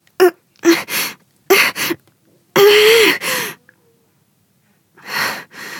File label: help, human woman wounded heavy; Stalker Anomaly